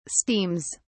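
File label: "steams", British English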